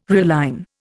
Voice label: "realign", Indian